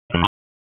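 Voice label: Police Northwest District Tac, radio; wake; p25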